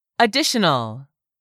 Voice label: "additional", English; 3000 words